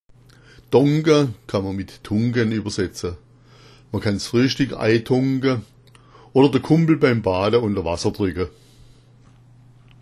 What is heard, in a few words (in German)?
donka, schwaebisch